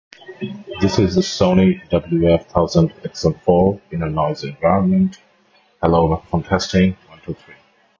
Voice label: noisy; Sony WF 1000XM4